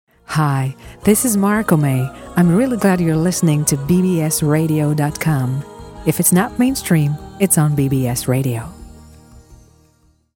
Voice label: bbs radio promo